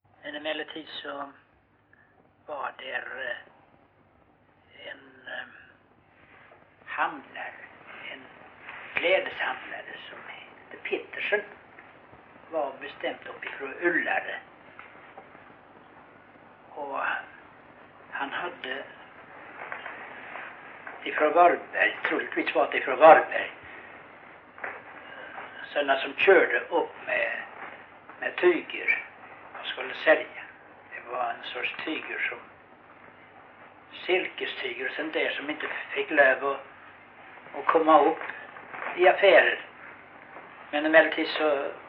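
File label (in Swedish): Rolfstorp Halland, Svenska sagor, dialekter; Folkminnen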